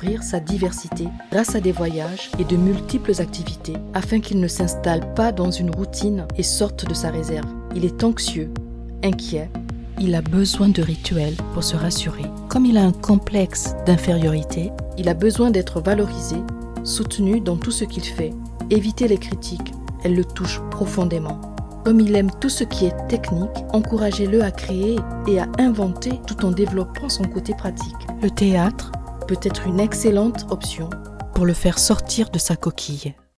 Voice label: Elearning Conseils parents Caring Educational, French